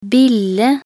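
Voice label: "bille", Norwegian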